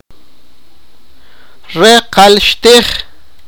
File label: sipakapense; he did not eat it